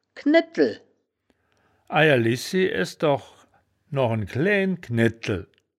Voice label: "knedtel", wittlich

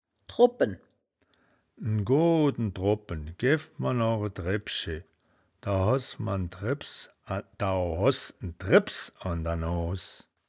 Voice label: "drobpen", wittlicher

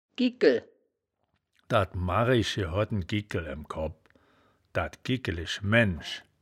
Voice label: "gigkel", wittlich

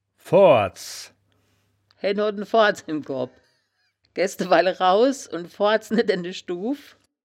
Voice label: "foards", wittlicher

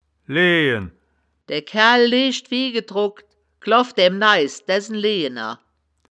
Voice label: "leejen", wittlicher woerterbuch; das wittlicher woerterbuch; woerterbuch vertonung

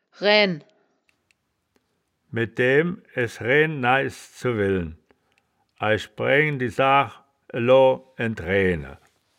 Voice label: "raeaen", wittlicher; das wittlicher woerterbuch; woerterbuch vertonung

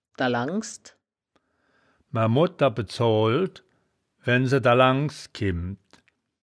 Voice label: "dalangsd", wittlicher

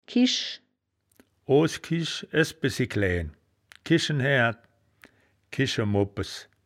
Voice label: "kisch", wittlich

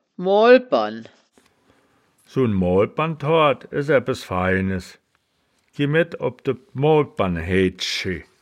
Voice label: "moolpern", wittlich

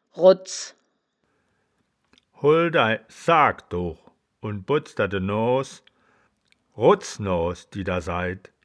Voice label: "roddz", wittlicher; das wittlicher woerterbuch; woerterbuch vertonung

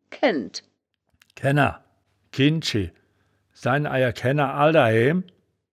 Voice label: "kaennd", wittlich

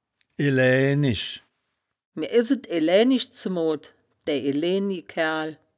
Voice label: "elaeaenisch", woerterbuch, Wittlich; eläänisch

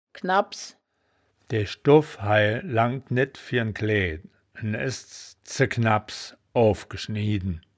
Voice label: "knabps", wittlich

